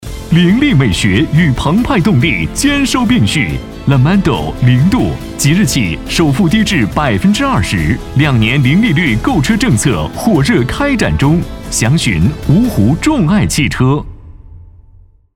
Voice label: 男 广告; 凌度广告

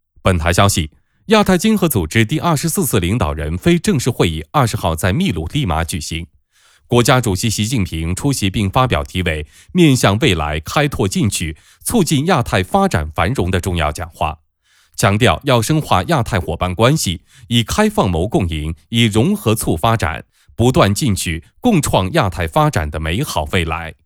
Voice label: 男 新闻 新闻播报

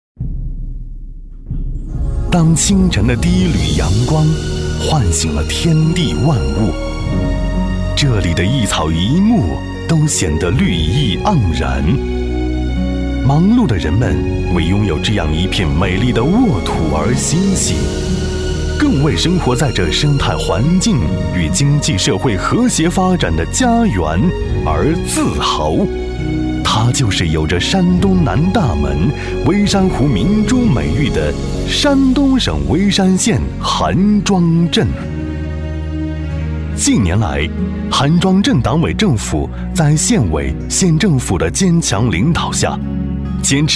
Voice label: 男 专题 大气雄浑; 韩庄镇

